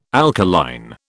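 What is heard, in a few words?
"alkaline", British English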